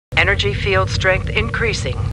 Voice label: Star Trek Computer Voice; StarTrekLCAR